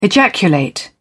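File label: "ejaculate", British English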